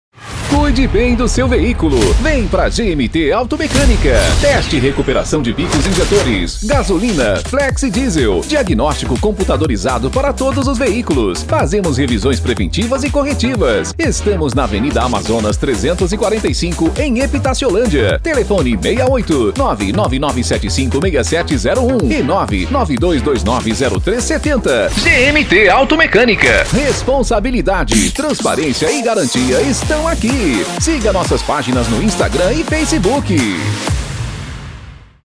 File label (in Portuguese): spot comercial; GMT Auto Mecanica